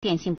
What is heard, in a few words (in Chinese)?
"dian4xin4", Chinese